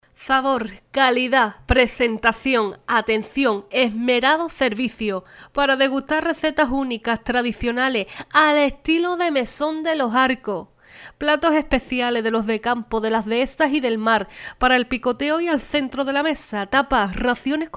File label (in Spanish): locutora andaluza